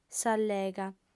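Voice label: "s'allega", Sardinian, female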